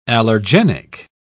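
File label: "allergenic", British English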